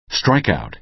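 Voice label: "strikeout", American English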